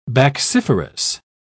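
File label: "bacciferous", British English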